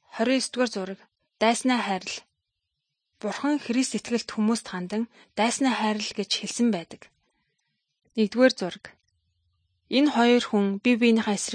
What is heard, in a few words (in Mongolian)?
good news mongolian